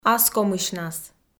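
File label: "аскомысьнас", Komi-Zyrian, female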